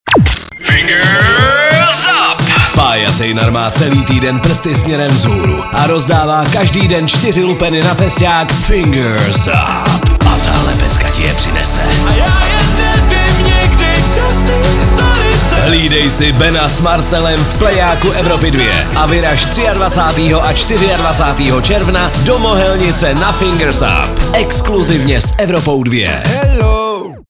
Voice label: promo; evropa2; fingers up